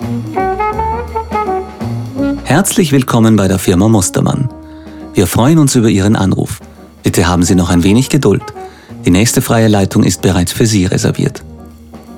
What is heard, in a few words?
tele Ansage